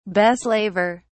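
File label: "beslaver", British English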